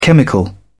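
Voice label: "chemical", British English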